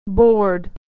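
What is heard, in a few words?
"board", American English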